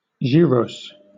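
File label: "gyros" British English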